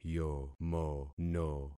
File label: "yomono", concat syllables; Affixing experiment